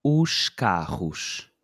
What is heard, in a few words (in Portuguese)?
"os carros", Portuguese